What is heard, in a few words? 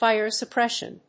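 "fire suppression", English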